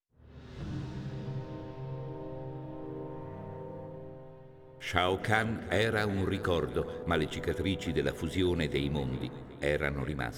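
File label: music voice-over endings Italian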